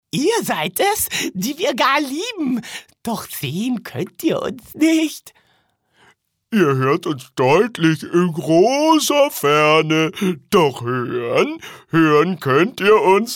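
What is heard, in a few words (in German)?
Charge, Sprecher, Männer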